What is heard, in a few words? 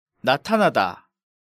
"Natanada", Korean